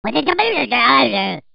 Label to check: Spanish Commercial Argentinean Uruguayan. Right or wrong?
Wrong